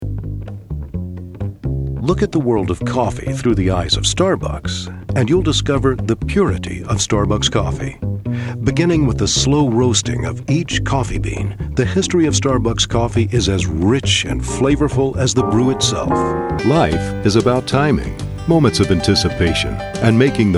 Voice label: Commercials